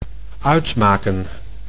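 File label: "uitmaken", Dutch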